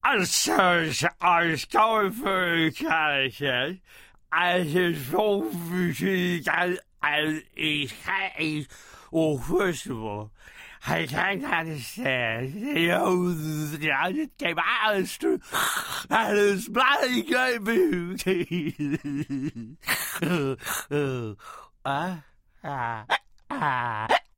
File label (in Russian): nevniatnaya boltovnia pianogo